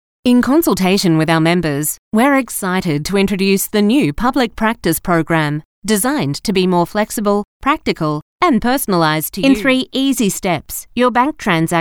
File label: Corporate